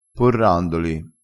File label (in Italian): "porrandoli", crespinese